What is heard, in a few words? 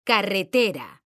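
"CARRETERA", Spanish, female; mekanta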